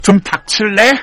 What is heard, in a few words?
negative vocalization; sniper